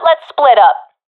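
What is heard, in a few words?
request spreadout, professional female; vo; Counter Strike Model Pack; CS:GO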